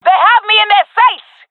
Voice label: taking fire, jungle fem; vo; agents; Source Model Packs; Counter Strike Model Pack; CS2; jungle fem epic

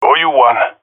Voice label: thankful, jungle male epic; Source Model Packs; Counter Strike Model Pack; CS2

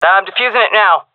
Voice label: defusing, vo fem; agents; Source Model Packs; Counter Strike Model Pack; CS2

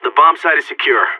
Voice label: bombsite clear, seal, player vo; Counter Strike; CS:GO

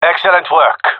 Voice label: round winning, male

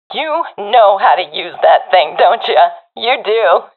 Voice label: sees enemy killed, professional fem; Counter Strike Model Pack; CS:GO

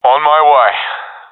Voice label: omw, professional epic; vo; Source Model Packs; Counter Strike Model Pack; CS2

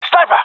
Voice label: sees sniper, gendermerie male; vo

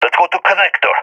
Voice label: goto connector, balkan; vo; balkan epic

